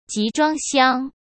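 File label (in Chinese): "集装箱", Mandarin Chinese; chinese-flashcards; hsk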